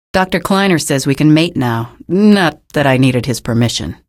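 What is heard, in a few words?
citizen remarks, female; source engine; episodic; episode 1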